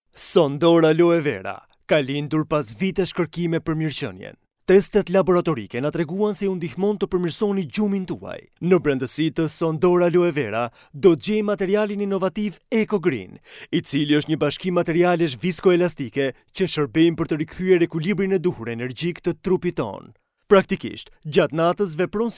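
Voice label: Albanian, male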